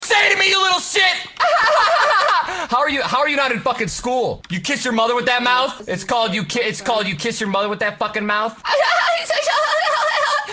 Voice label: rage; ninja

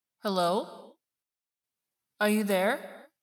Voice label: spooky voice

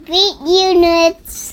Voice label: kid; beat you nerds

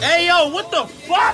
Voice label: ayo wtf no background

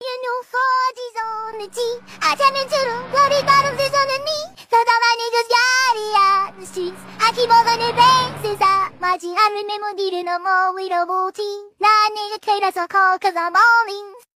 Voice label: anime girl shooty

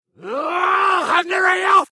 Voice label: fire in the hole but reverse

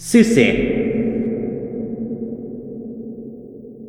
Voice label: french succ

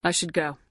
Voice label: female shepherd i should go

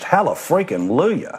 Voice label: australian hallelujah

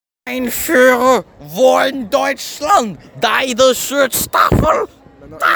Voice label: german god talking

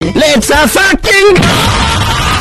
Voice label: victory loud; Luigi

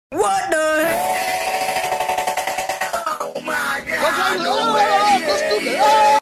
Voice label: what da hell vocoded

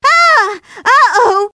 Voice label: uh oh; amy rose

